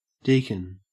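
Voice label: "deacon", Australian English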